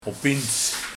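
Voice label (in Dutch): "Opiens", dialect; Plots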